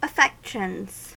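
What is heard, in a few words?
"affections", US English; pronunciations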